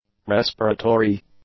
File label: "respiratory", English; adjectives